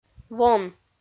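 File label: "вон", Komi, female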